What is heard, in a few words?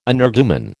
"energumen", American